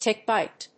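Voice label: "tick-bite", English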